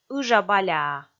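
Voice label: "ыжа-баляа", Komi, female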